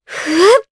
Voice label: Casting, jp; Ophelia; kings raid